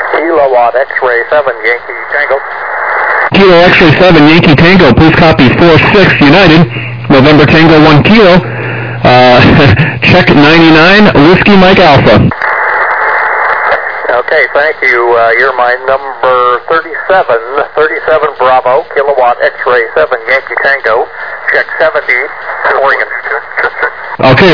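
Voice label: upper sideband 21MHz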